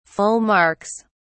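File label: "full marks", British English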